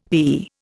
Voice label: "thee", Indian